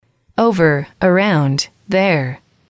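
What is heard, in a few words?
"over around there", English, female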